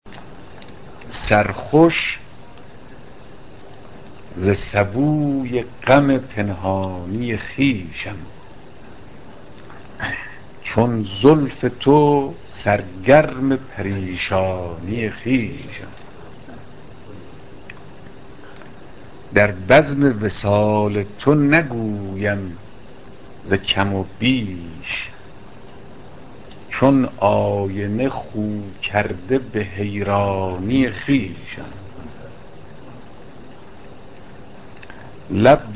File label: poem